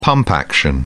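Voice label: "pump action", British English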